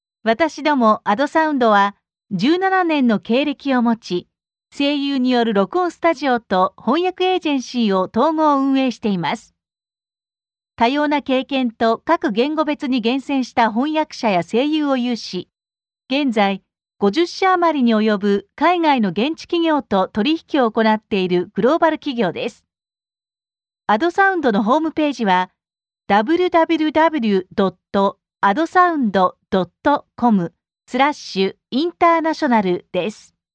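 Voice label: Japanese, female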